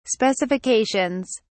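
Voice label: "specifications", English, British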